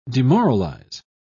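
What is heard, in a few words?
"demoralise", British English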